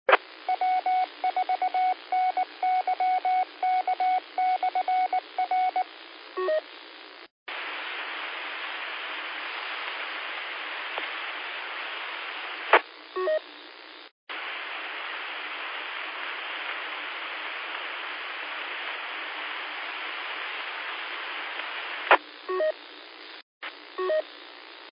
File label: qso rec simplex logic